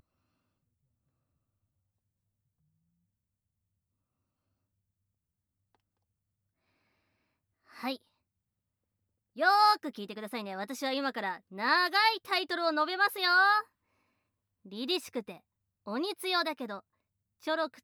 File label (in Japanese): フリートーク, ASMR